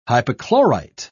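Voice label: "hypochlorite", British English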